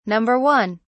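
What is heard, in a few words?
"number 1", British English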